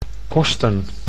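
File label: "kosten", Dutch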